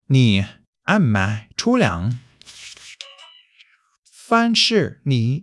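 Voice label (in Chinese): "你", Chinese; HSK1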